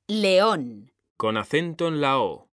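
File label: "león", Spanish, female; mekanta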